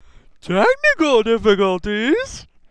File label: announcer